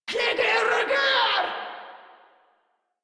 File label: Player Spotted Grineer Female, Barks, Battle; Warframe